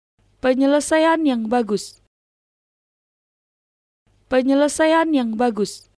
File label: "Well done", Indonesian